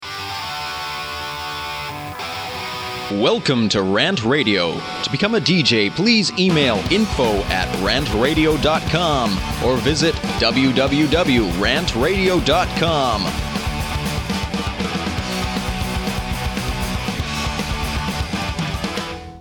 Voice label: Promo Station ID; RantRadio; Station Promos-In House